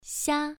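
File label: "xia" Mandarin Chinese, first tone, pronunciation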